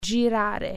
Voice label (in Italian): "turn", Italian